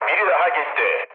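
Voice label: Turkish player killed enemy, mood low, speech; War Thunder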